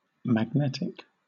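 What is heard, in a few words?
"magnetic", British English; pronunciations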